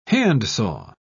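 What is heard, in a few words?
"handsaw", British English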